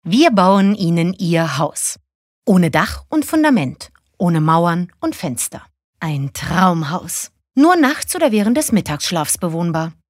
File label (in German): Werbung, Freundlich